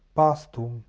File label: "pastum", Latin